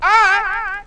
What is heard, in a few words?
"ay", voice